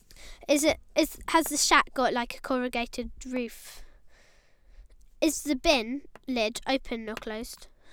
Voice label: 11 year old girl